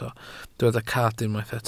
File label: "cad", welsh